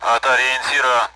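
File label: Speech Sound Russian Common AWACS; at bullseye; DCS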